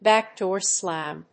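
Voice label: English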